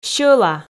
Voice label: "сьӧла", Komi